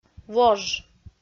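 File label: "вож", Komi, female; audio dict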